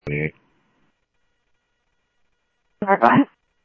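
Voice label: Sheriff East County, scanner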